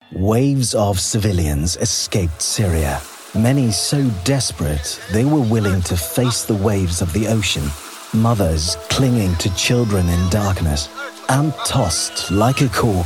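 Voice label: English UK, Documentary; GoLocalise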